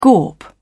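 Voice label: "gawp", British English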